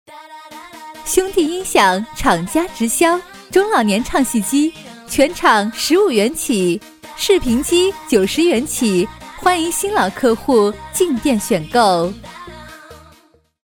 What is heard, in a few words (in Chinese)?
促销; 兄弟音响; 促销广告